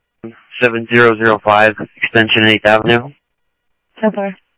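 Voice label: Simulcast police department, scanner audio trunk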